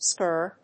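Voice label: "SQR", English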